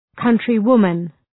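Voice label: "countrywoman", English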